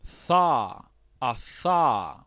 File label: voiceless dental fricative, es ES